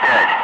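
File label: Russian speech, Ka-50 Wingman; Digits; DCS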